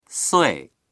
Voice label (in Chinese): "sui4", voices; 岁; ～歳